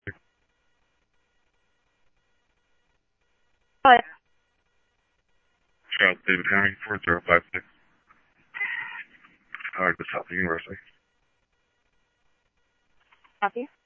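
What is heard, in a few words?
Mesa police department, scanner audio trunk; Mesa Simulcast; MESA PD Superstn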